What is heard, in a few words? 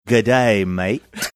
g'day mate Australian